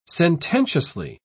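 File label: "sententiously", British English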